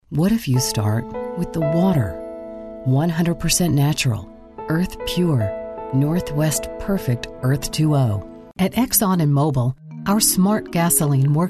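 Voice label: voice over Commercial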